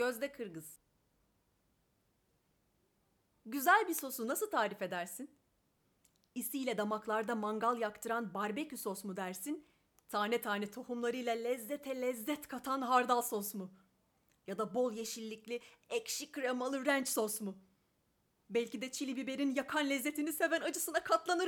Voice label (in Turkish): turkçe, turkce kadin